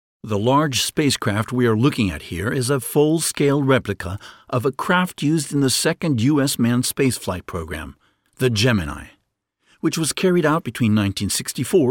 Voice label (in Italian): inglese usa